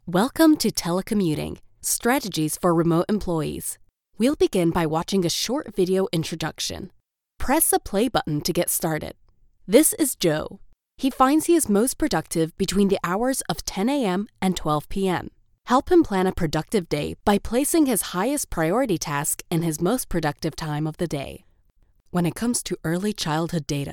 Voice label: Explainer